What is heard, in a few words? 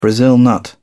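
"brazil nut", British English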